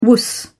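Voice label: "wuss", English, British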